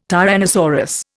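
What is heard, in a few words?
"tyrannosaurus", Indian English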